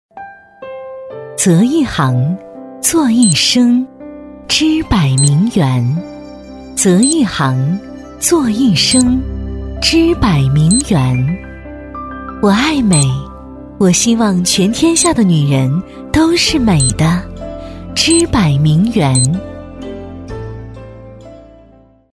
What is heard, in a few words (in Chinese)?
女 品质广告; 质感知性; 质感磁性; 芝柏名媛